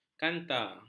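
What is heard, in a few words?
"kanta", Malay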